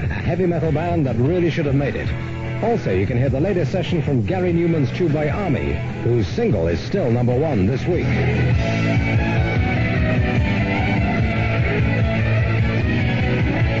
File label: friday rock trailer